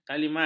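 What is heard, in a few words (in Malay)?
"kalimat", Malay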